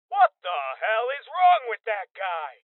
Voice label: Voices Male Young; Rebel Cops